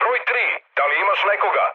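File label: Serbian chat; War Thunder; speech srb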